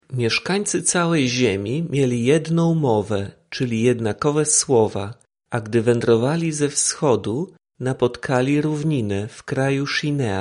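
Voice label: Polish; babel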